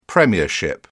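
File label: "premiership", British English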